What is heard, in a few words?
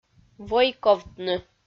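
"войковтны", Komi, female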